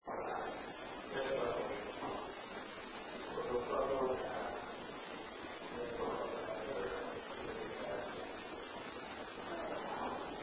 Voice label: wow WOW male voice; Case 020 Ballard Building

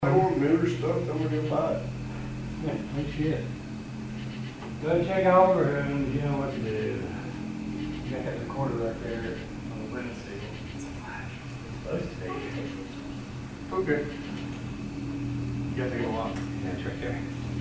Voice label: Unexplained Male Voice; Case 025 Mahood Hall Bluefield State College; 2nd Floor

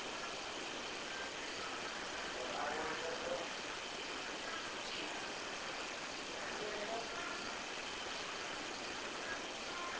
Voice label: Unexplained low female whisper inaudible

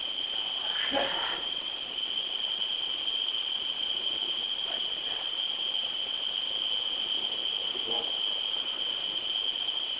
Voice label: Home Unexplained whisper What you doing

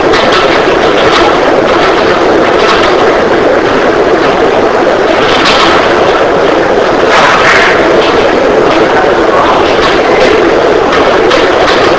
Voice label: 2nd Floor Kids Room Unexplained inaudible whisper; Truner Home Bluefield WV

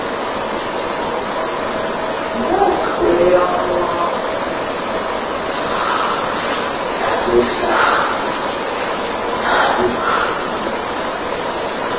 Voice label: Unexplained Female Voice; (Rodney Breath Rodney Breath Rodney)

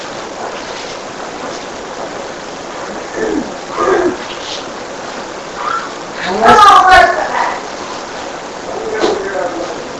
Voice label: Unexplained Inaudible Female Voice Amplified

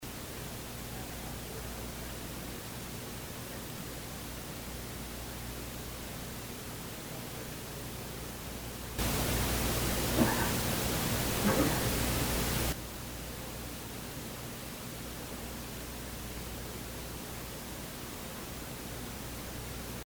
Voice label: Home Daughters Room WHISPER; Case 021 Zepp; Inaudible AMP

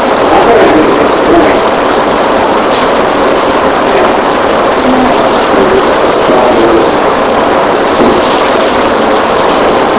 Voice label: Unexplained Very Low Child or Female Voice; Upstairs Hallway; Night; Amplified

